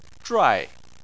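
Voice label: "zhuai" Chinese, fourth tone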